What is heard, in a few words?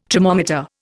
"tromometer", Indian